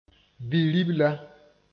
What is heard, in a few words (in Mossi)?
"bi-ribla", moore